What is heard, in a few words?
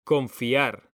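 "CONFIAR", Spanish, male; mekanta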